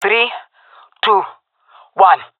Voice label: countdown, jungle fem epic; vo; agents; Source Model Packs; Counter Strike Model Pack; CS2